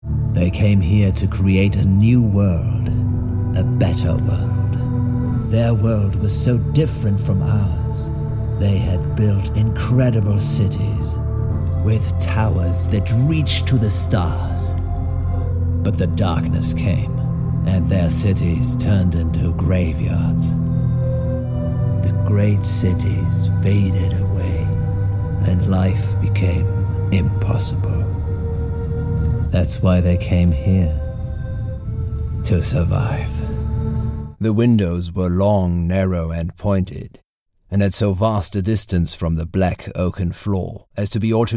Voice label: English Narration Voicereel